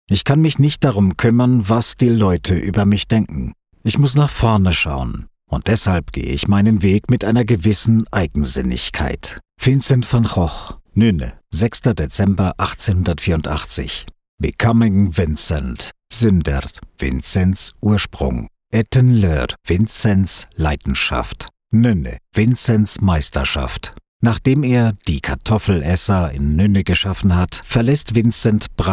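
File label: German, male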